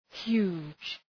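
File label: "huge", English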